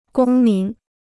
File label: "公民", Chinese